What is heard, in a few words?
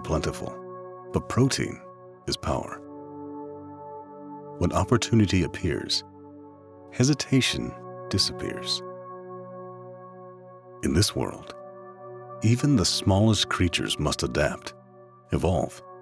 Forest Documentary